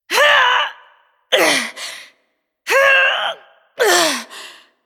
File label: cinematic voice, ru; lineage2